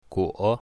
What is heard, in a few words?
"guo3", Mandarin